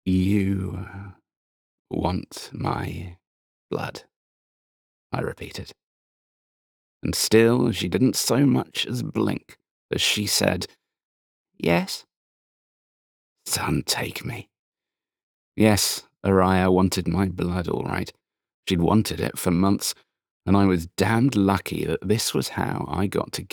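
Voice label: Romantasy Sample, British Male Audiobook Narrator, British RP voice; The Ashes and the Star-Cursed King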